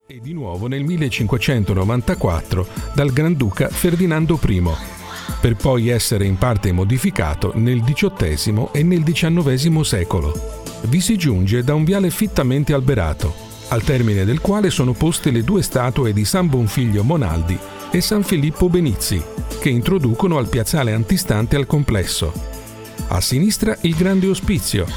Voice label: male italian documentary; documentary ii; voices

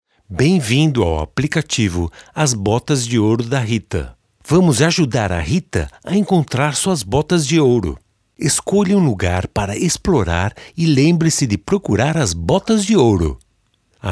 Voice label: male brazilian portuguese narration

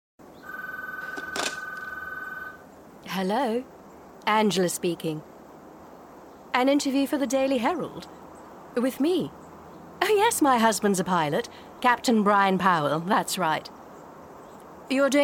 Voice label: female irish multiple accents audio drama; irish neutral

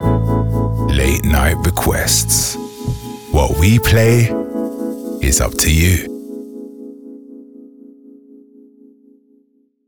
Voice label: male english late night radio promo

